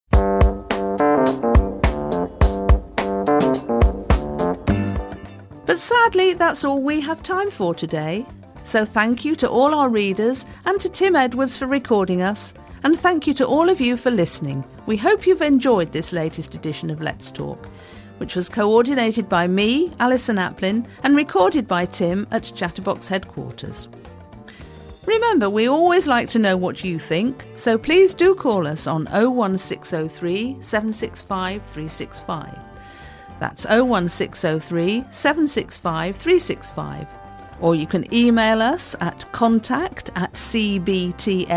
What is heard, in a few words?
Closing Announcement